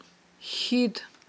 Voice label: "хьид", Aghul

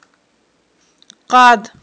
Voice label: "къад", Aghul

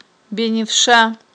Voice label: "беневша", Aghul

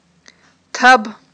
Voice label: "таб", Aghul

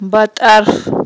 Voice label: "батIарф", Aghul

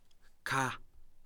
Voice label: "mouth", Kham

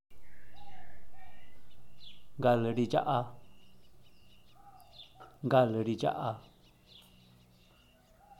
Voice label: "gal ridxaʼa", zapoteco teotitlan; calentarse

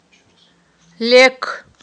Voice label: "лек", Aghul

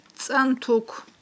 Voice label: "цIантук", Aghul

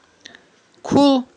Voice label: "кул", Aghul

